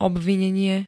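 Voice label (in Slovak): "obvinenie", Slovak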